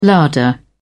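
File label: "larder", British English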